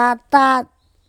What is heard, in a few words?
"atat" tongue, andamanese